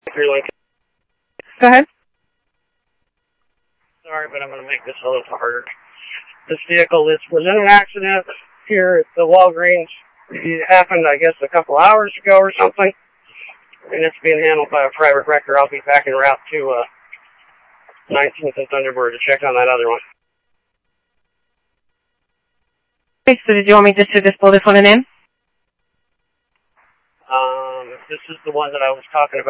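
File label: Phoenix police department, scanner; PHOENIX PD-200 A Phoenix PD; ThompsonPeakHigh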